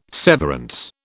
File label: "severance", British